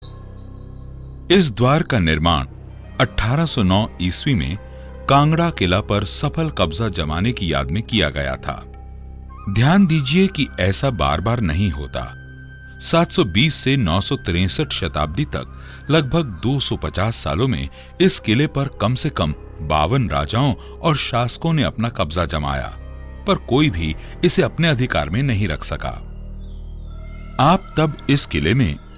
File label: HINDI